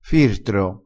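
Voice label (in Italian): "firtro", dialettale crespinese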